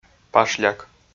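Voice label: "пашляк", Komi, male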